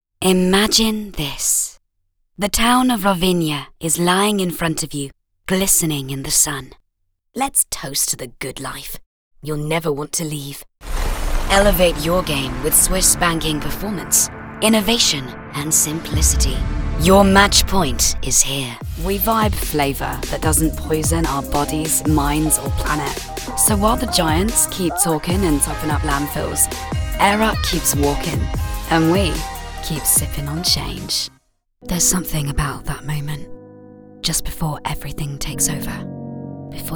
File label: Commercial Reel